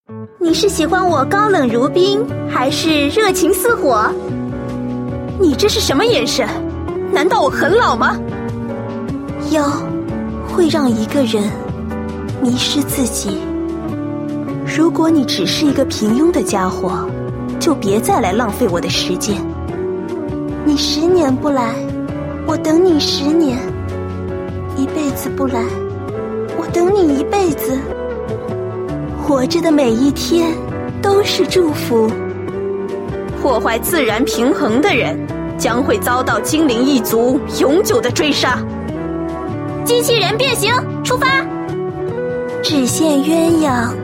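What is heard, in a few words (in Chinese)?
qingniannv, 青年女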